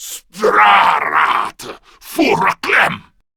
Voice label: Attack, Male; Warframe; Grineer Male B; Battle Barks